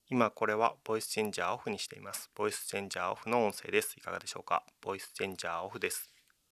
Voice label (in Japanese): ボイスチャンジャーなし